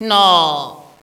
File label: "nooo", Echo inside caves, underground; DS; ML BOWSERS INSIDE; Luigi